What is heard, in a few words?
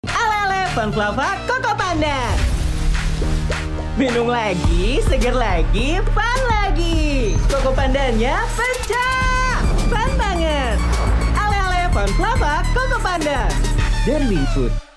Announcer